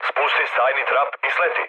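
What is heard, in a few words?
Serbian bailout mood low, speech; War Thunder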